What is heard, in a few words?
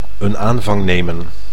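"een aanvang nemen", Dutch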